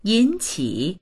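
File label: "yin3qi3", Mandarin Chinese; 引起; 引き起こす、もたらす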